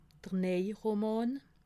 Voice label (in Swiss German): "neje", alsace